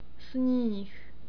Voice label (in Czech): "snih", Czech; long vowels